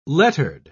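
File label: "lettered", British English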